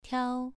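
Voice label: "tiao", Mandarin Chinese syllable, second tone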